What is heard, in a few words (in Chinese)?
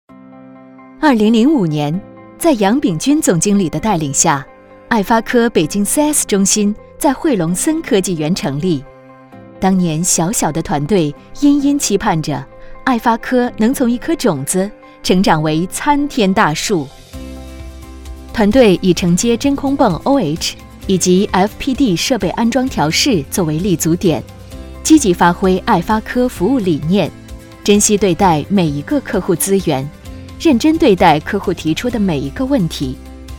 宣传片积极企业宣介